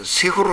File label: "sefuro", minamiyamagata